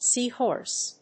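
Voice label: "sea horse", English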